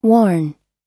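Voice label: "worn", American English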